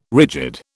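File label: "rigid", British English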